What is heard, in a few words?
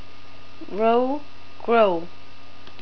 "rule", NZ English